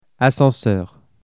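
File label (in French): "ascenseur", French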